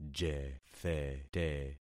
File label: "jethete", concat syllables; Affixing experiment